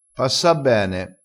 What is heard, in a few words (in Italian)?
"passa bene", crespinese